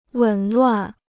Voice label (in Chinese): "紊乱", Chinese